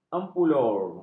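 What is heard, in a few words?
"empulur", Malay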